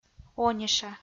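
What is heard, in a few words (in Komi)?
"ониша", Komi, female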